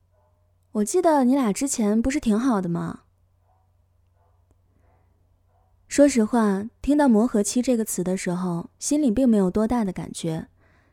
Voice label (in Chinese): 走心叙述